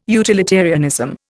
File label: "utilitarianism", Indian